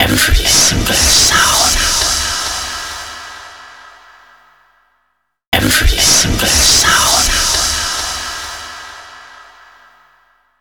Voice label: Every Single Sound, Vox FX; Total Drum&Bass